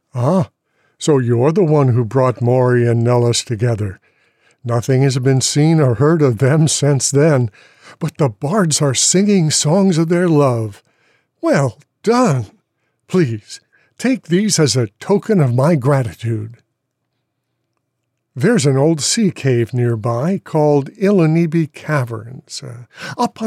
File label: breton, breton voice auditions